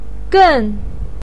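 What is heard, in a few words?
"gen" fourth tone, chinese, voice pinyin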